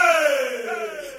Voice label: "ay", voice